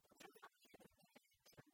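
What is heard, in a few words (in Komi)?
кутшӧм факультетын тэ велӧдчан, Komi, female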